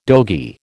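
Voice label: "dogie", American English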